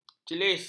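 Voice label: "celis", Malay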